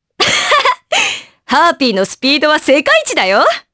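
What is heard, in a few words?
voice dia, voices heroes, Japanese; Naila; kingsraid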